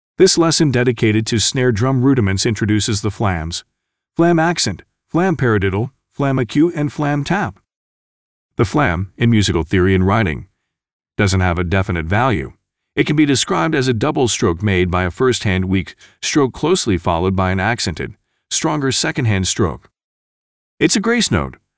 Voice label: 外籍男英 外语 美式英语 课件讲解

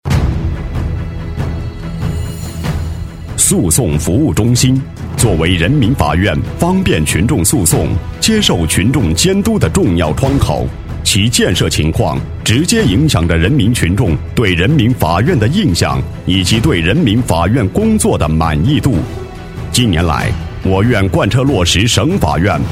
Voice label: 专题, 政府, 法院诉讼服务中心情况, 稳重; 博罗县人民法院诉讼服务中心情况

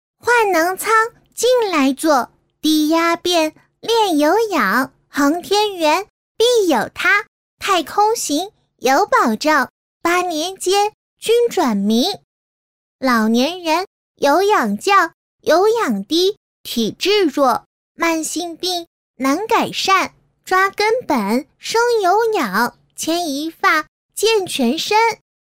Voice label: 女 动画 童声 女童 童谣

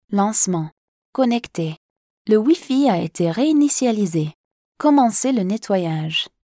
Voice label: 法语女 外语; 小语种

